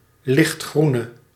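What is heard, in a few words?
"lichtgroene", Dutch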